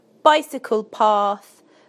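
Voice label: "bicycle path", British English; PictureDictionary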